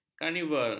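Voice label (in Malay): "karnival", Malay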